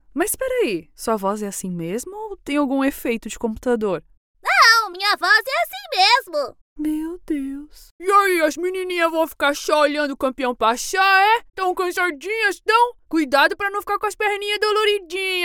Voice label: portuguese brazilian animation, brazilian portuguese voice actors